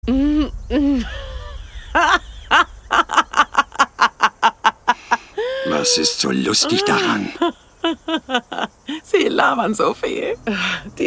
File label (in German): Synchron; Twisted-Metal